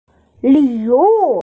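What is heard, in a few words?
"li3wu4", Mandarin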